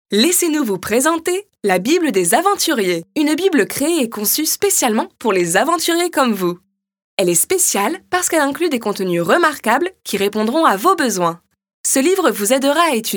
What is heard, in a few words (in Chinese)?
外籍法语女,干音,宣传片